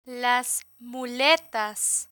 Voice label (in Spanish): "las muletas", Spanish